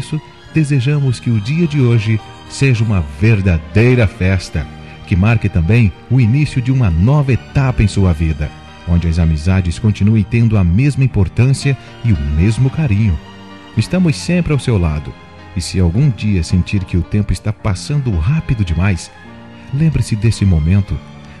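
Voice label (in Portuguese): Aniversário de 15 Anos, male, Amigo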